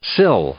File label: "sill", English; i-ɪ; noun